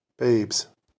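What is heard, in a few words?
"babes", American English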